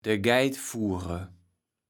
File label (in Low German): "de Geitfuure", kaevels platt